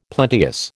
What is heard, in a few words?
"plenteous", American